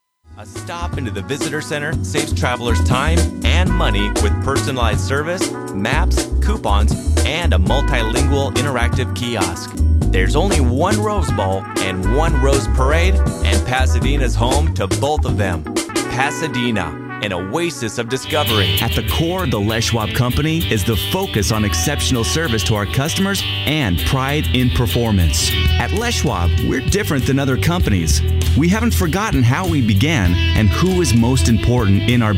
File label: Corporate